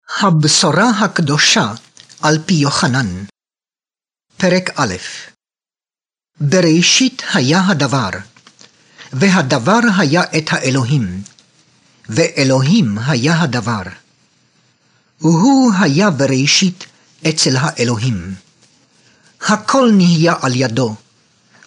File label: hebrew; delitzsch; new testament; heb hebrew audio bible